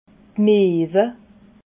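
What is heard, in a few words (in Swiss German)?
"meewe", Alsatian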